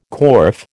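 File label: "corf", American English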